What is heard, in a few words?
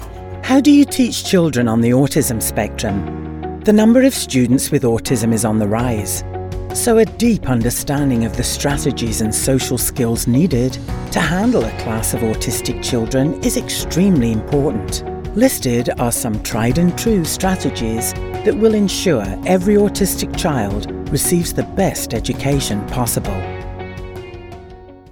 eLearning; Autism spectrum